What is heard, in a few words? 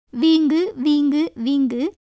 Tamil